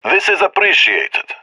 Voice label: thankful; Counter Strike; CS:GO; leet epic